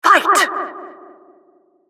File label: announcer round start